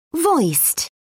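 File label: "voiced", British English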